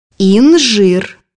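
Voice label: "fig", Russian; bukvar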